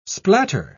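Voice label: "splatter", English word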